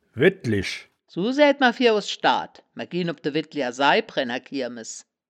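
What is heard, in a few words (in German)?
"wedlich", Wittlicher Wörterbuch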